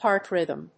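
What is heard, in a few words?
"heart rhythm", English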